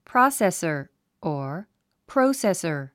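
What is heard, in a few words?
"processor", English word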